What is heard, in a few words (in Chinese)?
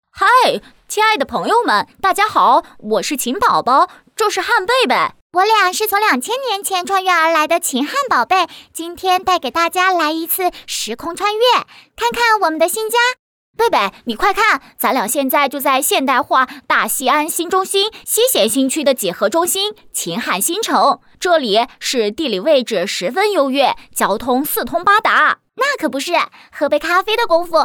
女 旅游 男女童向导; 秦汉新城